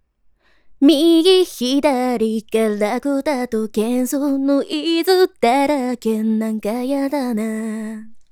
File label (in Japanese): ホワイトノイズ除去後